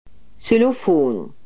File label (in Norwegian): "xylofon", norsk